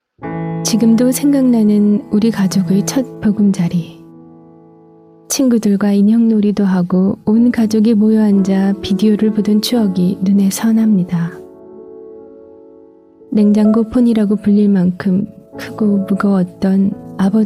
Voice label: Korean; Clarins